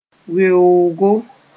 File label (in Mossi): "weoogo", moore